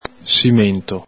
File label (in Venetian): "simento", Veneto